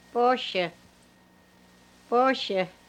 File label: cat variant pronunciation, hupa